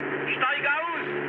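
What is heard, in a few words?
German bailout mood high, speech; War Thunder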